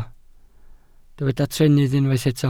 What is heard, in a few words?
"trennydd", Welsh